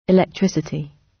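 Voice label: "electricity" British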